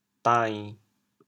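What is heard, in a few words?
"dain7", Teochew, chaoyang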